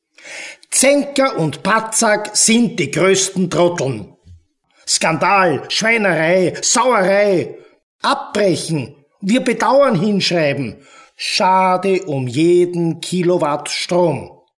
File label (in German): hoerspiel; kottan; orf protokoll